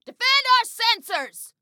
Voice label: Defend Sensors, Female

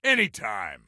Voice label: Respond Any Time, Soldier Male; Soldier Male 03

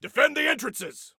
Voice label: Defend Entrances, Soldier Male

